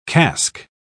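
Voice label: "casque", British English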